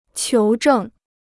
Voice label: "求证", Chinese

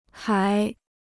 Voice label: "海", Chinese

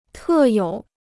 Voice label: "特有", Chinese

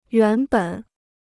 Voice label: "原本", Chinese

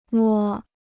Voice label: "摸", Chinese

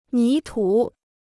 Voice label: "泥土", Chinese

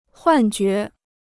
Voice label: "幻觉", Chinese

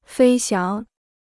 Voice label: "飞翔", Chinese

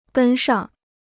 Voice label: "跟上", Chinese

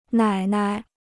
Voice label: "奶奶", Chinese

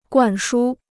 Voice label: "灌输", Chinese

